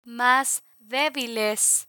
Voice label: "mas debiles", Spanish; medical medicine vocabulary; learn spanish